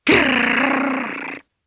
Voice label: Vox Deny, jp; Nia; kingsraid; voices heroes jp